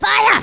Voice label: "FIRE", Angry Scots; Worms Armageddon

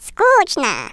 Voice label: boring, Russian

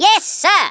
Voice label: "YES SIR", Australian; Worms Armageddon

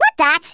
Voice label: "orders", Brummie; Worms Armageddon

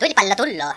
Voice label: fireball, Finnish; Worms Armageddon

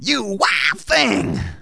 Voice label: "Excellent", speech; Soul Man

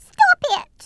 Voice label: "leave me alone", Brooklyn; Worms Armageddon

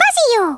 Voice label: "excellent", Hungarian; Worms Armageddon

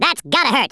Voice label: "ouch", US Sports

